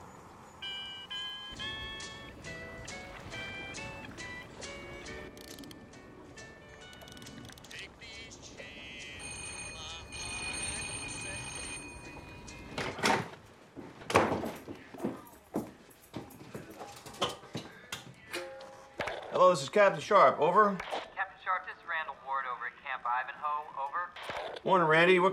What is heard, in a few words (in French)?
Conversation radio; moonrise kingdom